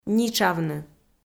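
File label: "нитшавны", Komi, female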